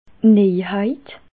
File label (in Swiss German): "neijheit", alsace